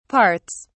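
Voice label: "parts", British English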